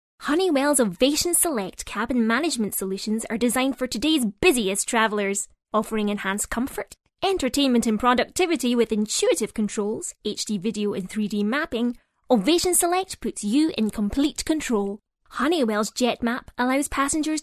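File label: Scottish English, female, voice over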